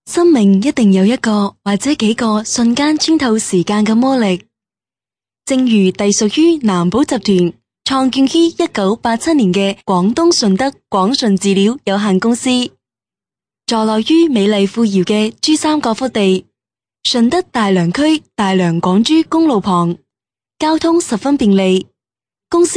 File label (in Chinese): 粤语 公司宣传简介, 女